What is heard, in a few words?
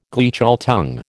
"Gleichschaltung", American